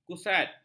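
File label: "kusat", Malay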